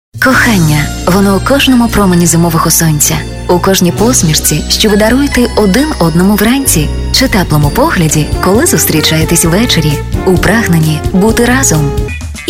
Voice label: locutora ucraniana ukrainian voiceover, Ukrainian female voice over talent